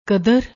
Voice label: "kdr", Punjabi